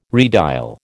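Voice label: "redial", American English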